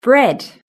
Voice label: "bread", british english

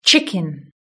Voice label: "chicken", british english

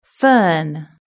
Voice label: "fern", british english

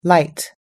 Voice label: "light", us english

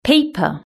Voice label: "paper", british english

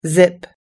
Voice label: "zip", US English

